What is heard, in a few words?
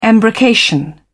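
"embrocation", British English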